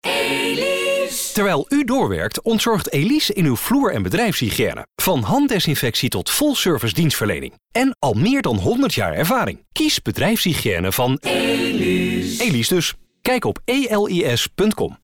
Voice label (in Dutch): Radiocommercial Bedrijfshygiene